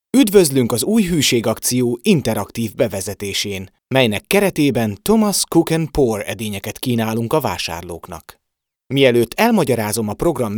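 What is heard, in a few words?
Hungarian e-learning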